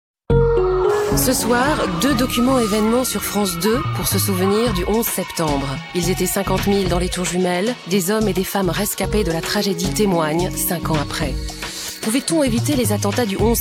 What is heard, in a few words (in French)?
promo; france2; votre soiree